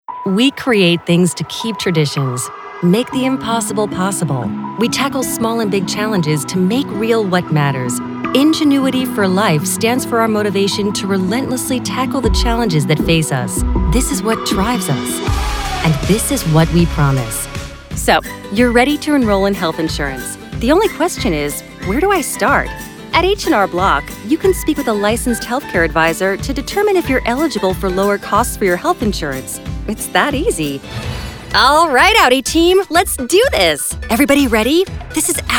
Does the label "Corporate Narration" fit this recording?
Yes